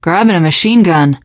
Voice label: take submachine gun, teen girl, survivor voice